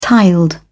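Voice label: "tiled", British English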